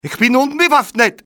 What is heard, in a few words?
unarmed, civ male; XBOX